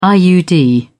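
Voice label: "iud", British English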